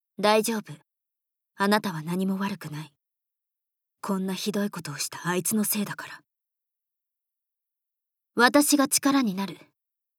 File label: Woman